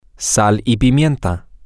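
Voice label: salt and pepper, Spanish, male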